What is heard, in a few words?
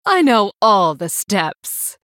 female blood elf trigger shadowstep